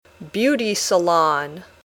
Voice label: "beauty salon", English